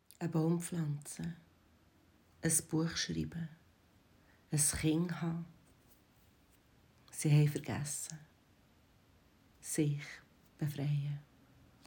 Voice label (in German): Berndütsch